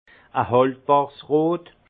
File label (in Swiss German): "haltbarsrot", alsace; vin; rouge soutenu